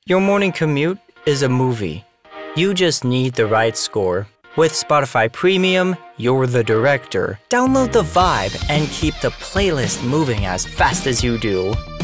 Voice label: Commercial